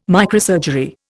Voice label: "microsurgery", Indian

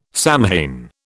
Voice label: "Samhain", British

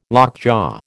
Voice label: "lockjaw", American

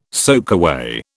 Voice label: "soakaway", British

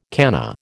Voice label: "canna", American

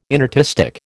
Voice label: "inartistic", American

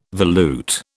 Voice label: "volute", British

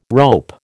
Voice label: "rope", American

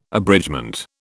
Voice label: "abridgement", British

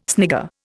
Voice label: "snigger", Indian

